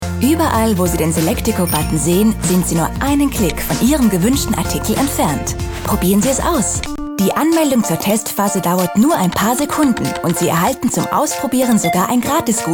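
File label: Austrian, Fremdtake Freundlich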